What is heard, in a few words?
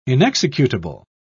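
"inexecutable", British English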